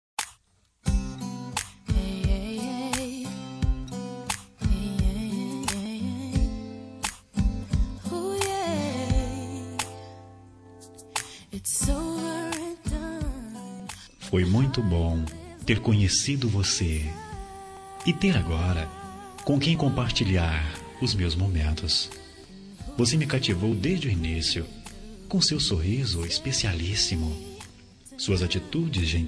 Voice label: Romântica Estou Feliz por Te Conhecer

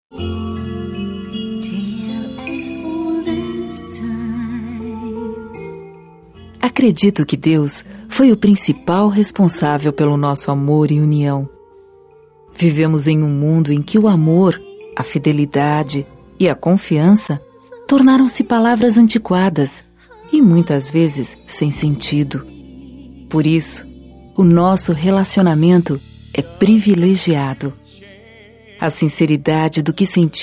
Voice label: Namorados pra Casal F

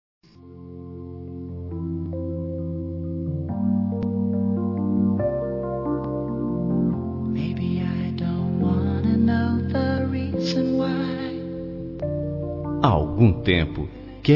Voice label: Romântica Com Conquista

